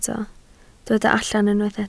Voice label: "allan", Welsh

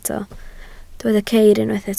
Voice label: "ceir", Welsh

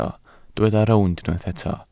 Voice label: "rownd", Welsh

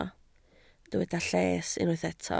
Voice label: "lles", Welsh

